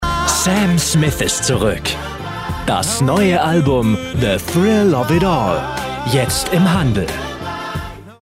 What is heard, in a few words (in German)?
Trailer souveraen